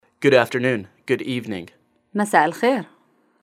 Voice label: iraqi, Good afternoon / Good evening masa' el-khair مساء الخير